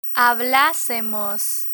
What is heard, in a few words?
"hablasemos", Spanish